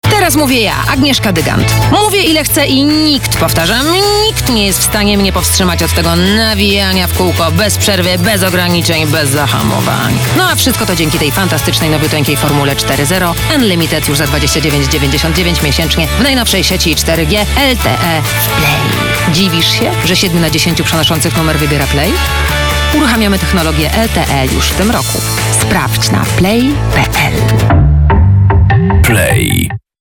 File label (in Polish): radio